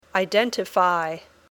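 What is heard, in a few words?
"identify", English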